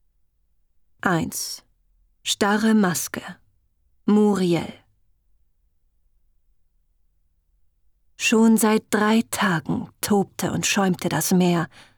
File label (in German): HOERBUCH fantasy; gezeitenruf